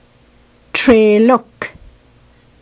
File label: herring - treeluk; fisheries species